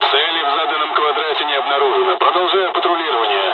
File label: dialogs russian; War Thunder